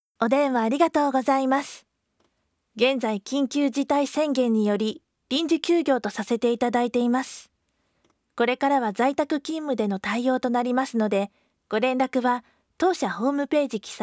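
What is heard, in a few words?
voice answering machine message; kinkyujitai rusuden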